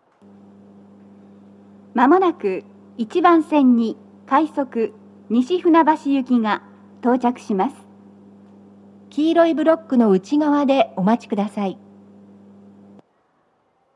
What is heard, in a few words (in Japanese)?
接近放送, BOSE天井型での収録です。; 放送は「快速 西船橋行き」です。